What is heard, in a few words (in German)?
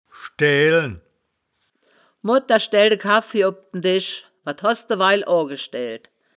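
"schdaeaelen", wittlicher woerterbuch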